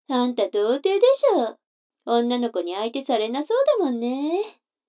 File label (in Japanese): 女の子 台詞